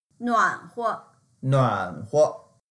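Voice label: "nuan3huo", Mandarin Chinese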